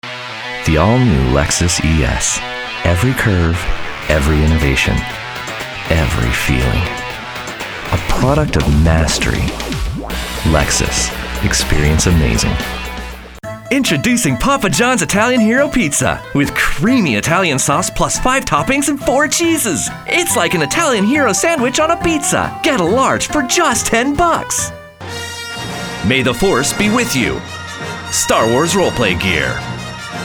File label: Commercial